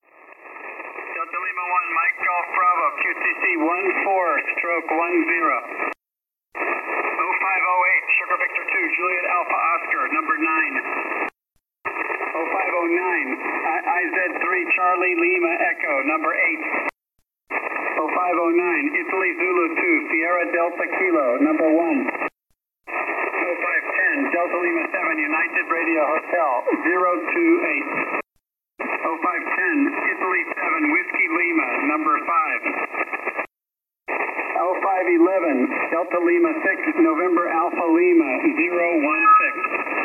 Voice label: ssb, contest